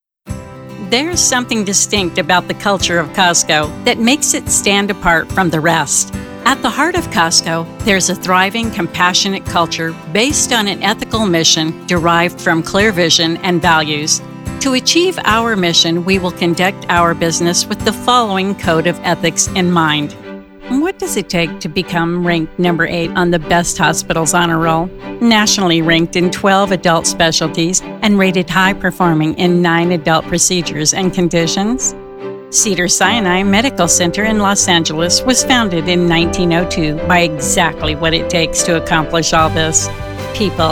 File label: CORPORATE